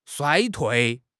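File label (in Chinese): "衰退", Chinese; HSK6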